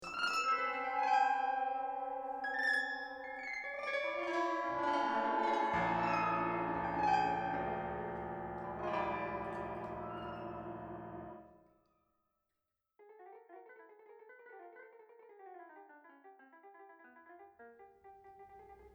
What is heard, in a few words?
narration